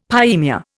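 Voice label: "pyemia", Indian English; Dictionary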